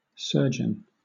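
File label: "surgeon", British English; pronunciations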